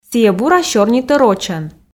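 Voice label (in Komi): сійӧ бура сёрнитӧ рочӧн, Komi, female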